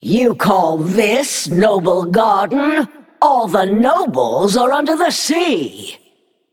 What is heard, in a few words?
Female Naga HAPPY NOBLEGARDEN